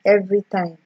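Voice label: "everytime", Nigerian Pidgin, female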